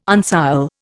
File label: "uncial", Indian